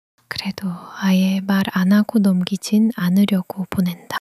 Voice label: shy